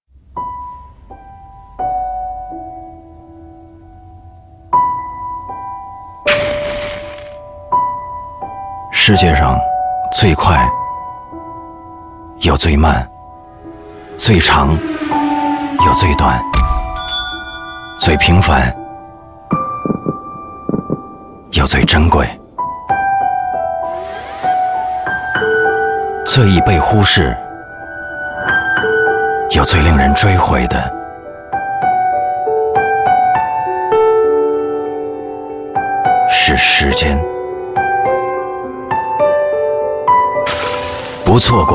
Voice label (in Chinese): 男 酒广告, 国语男声配音